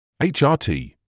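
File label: "HRT", British English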